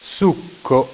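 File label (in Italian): "succo", Italian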